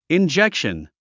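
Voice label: "injection", English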